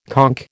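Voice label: "conch", American English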